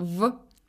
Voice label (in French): son v, russe